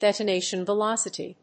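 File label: "Detonation velocity", English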